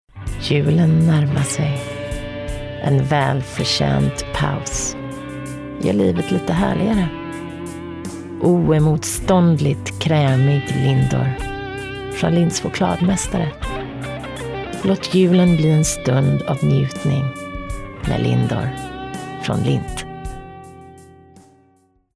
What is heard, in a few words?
Swedish